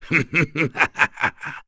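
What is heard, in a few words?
Vox Happy, English; Bernheim; kingsraid; voices heroes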